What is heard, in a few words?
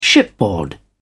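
"shipboard", British English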